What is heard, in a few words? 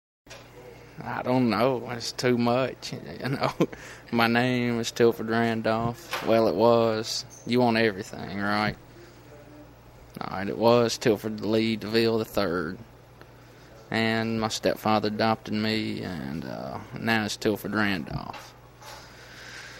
Northern Louisiana White Male Age 25